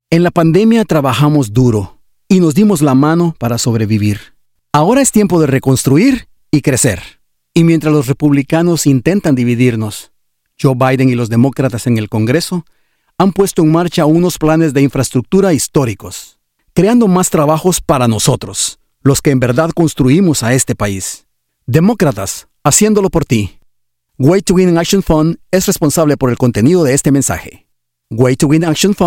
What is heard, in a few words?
spanish latin american political ads